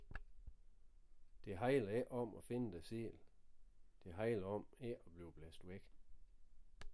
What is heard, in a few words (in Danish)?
De Hajler Ae Om Thybomaal